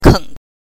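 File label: "keng", neutral tone, Mandarin Chinese pinyin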